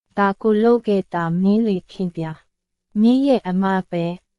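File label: Myanmar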